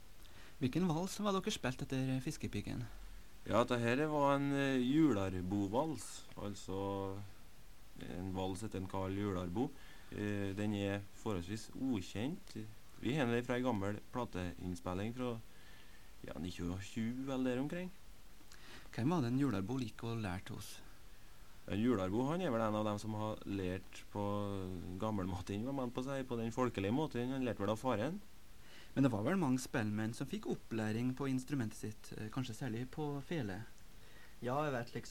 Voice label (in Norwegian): Tale